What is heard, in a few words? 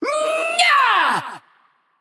Female Naga Attack